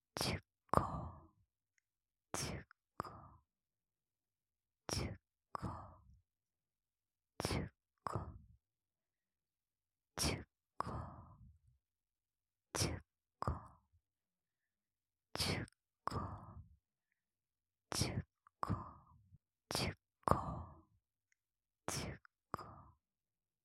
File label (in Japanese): オノマトペ素材 ちゅこちゅこ（ゆっくり）